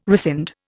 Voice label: "rescind", Indian